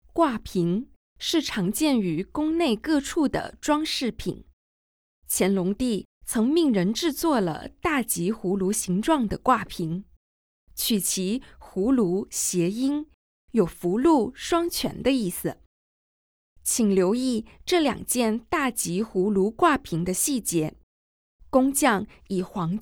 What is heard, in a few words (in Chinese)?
Mandarin VO; audio guide; g2-from-dawn-to-dusk